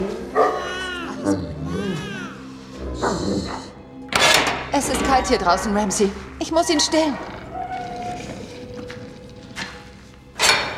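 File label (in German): Schauspiel, Dynamisch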